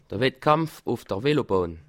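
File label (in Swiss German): "wettkampf", Bas Rhin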